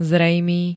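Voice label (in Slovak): "zrejmý", Slovak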